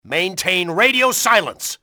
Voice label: radio silence, english; XBOX; enemy